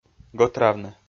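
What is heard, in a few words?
"гӧтравны", Komi, male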